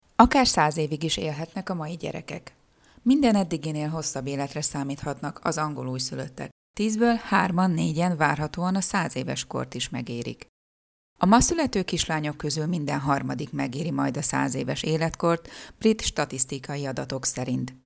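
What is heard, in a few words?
Hungarian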